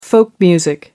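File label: "folk music", British English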